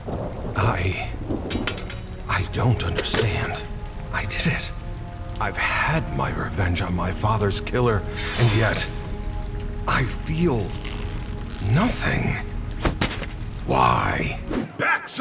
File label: character